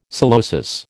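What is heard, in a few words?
"psilosis", American English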